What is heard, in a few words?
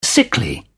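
"sickly", British English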